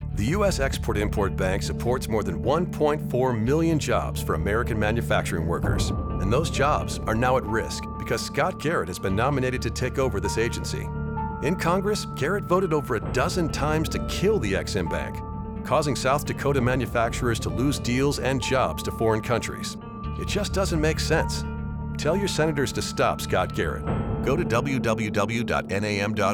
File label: Radio Ad; ExIm; SOUTH DAKOTA